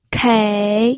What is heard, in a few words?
"kei3", pinyin